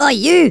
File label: OI NUTTER, Angry Scots; Worms Armageddon